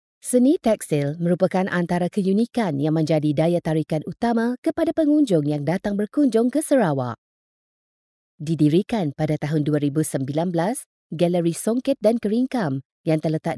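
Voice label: Malay, Malaysia, female; voice over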